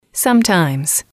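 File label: "sometimes", English, female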